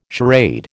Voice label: "charade", American